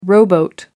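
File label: "rowboat", British English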